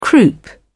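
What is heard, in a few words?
"croup", British English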